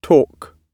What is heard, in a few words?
"talk", English